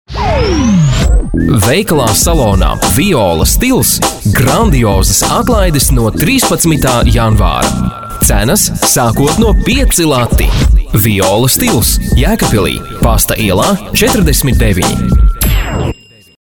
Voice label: Latvian male

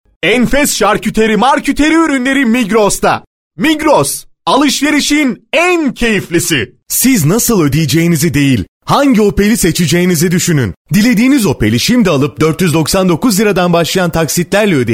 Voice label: Turkish male

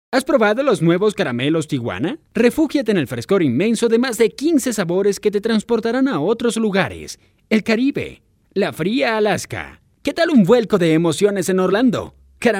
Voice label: Spanish Latin America

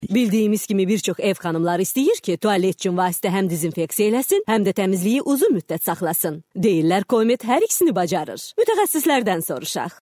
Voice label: Azerbaijan female

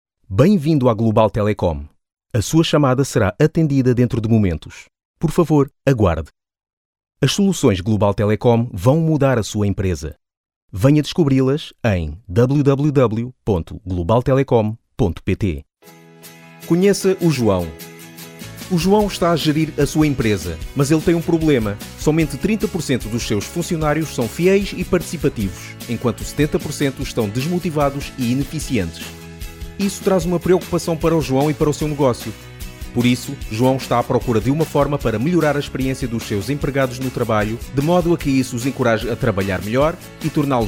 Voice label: Portuguese Iberian

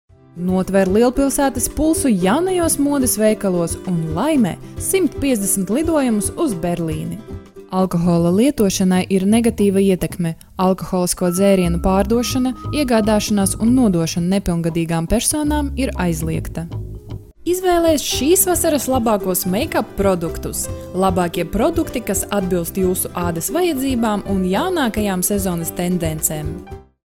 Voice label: Latvian female

